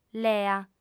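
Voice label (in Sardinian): "lea", Sardinian, female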